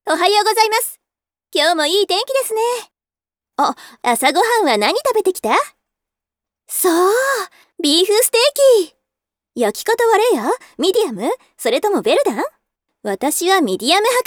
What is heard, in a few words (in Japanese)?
takame yasasii